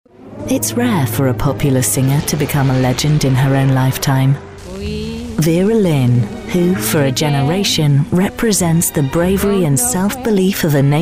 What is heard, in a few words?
new narration